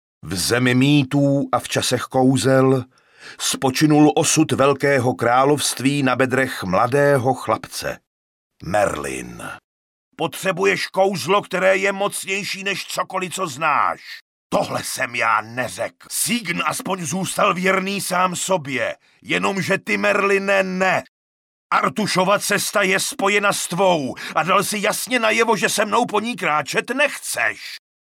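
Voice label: Male Czech drama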